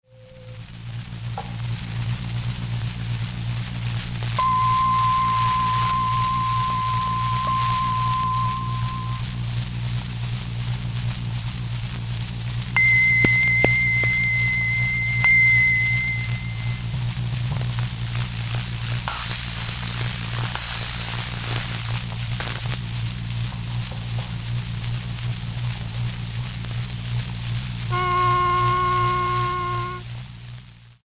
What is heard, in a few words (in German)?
Experimentalaufnahmen Vokale; Stumpf